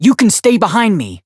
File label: variable chant; jade